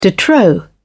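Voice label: "de trop", en, British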